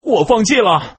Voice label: Man giveup